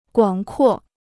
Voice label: "广阔", Chinese